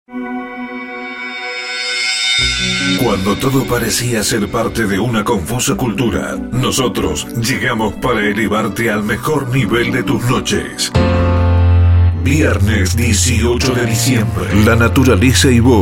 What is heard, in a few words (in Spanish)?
spot boliche voz